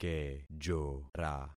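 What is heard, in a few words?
"kejora", concatenated syllables; Affixing experiment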